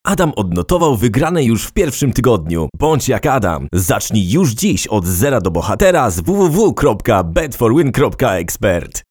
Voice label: Explainer High Energy